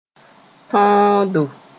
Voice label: "tõodo", Mooré